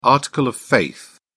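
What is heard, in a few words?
"article of faith", English, British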